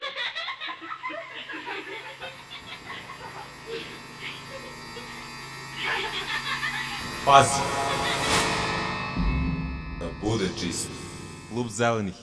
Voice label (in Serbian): reklama; klub zelenih